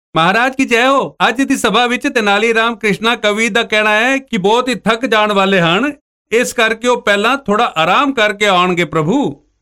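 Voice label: Punjabi male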